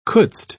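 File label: "couldst", British English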